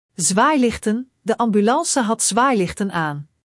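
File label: "zwaailichten", Dutch; Spelling Gr5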